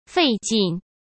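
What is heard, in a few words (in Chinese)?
"费劲", Mandarin Chinese; chinese-flashcards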